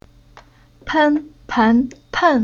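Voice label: "Pen", Chinese syllable; Уроки фонетического блока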